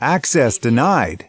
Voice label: US male "access denied"; lynne publishing